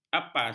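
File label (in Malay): "apas", Malay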